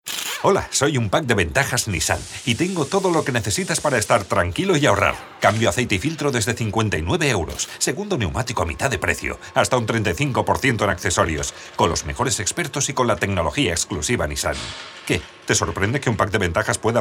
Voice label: male spanish car comercial

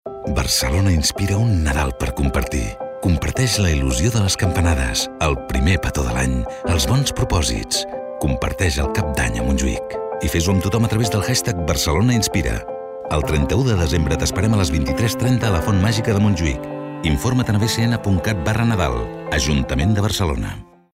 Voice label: male catalan town hall comercial; ideasonora

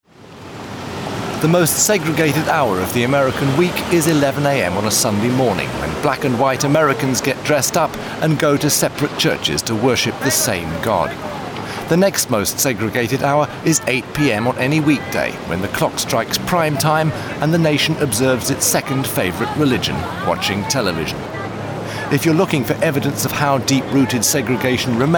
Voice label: male british english commercial; ideasonora

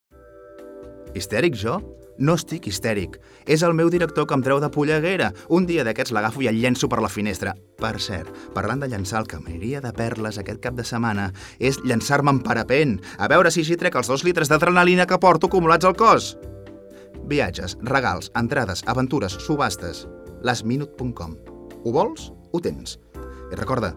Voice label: male catalan outlet comercial; ideasonora

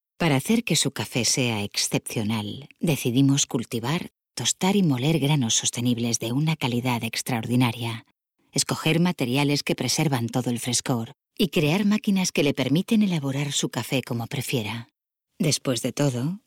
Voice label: female spanish organic coffee comercial; ideasonora

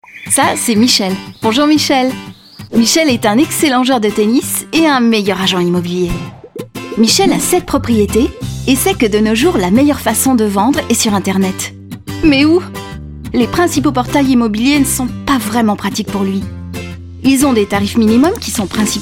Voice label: female french house comercial; ideasonora

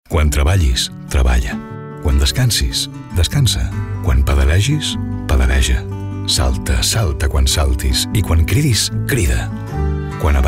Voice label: male catalan car comercial; ideasonora

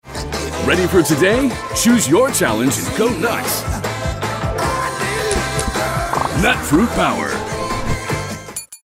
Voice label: male american english fruit comercial; ideasonora

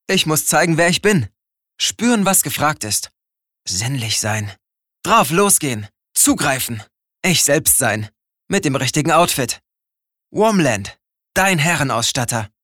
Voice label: male german comercial

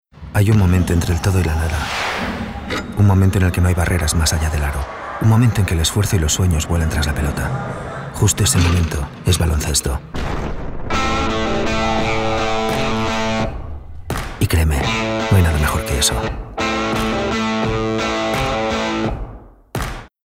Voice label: male spanish bank comercial; ideasonora

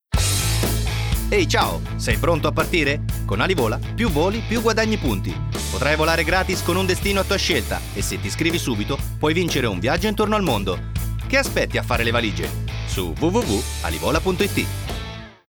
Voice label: male italian comercial

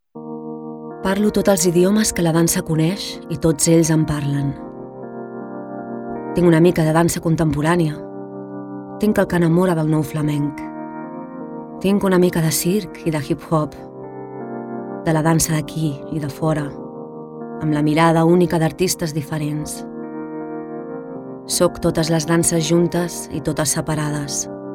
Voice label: female catalan dance comercial

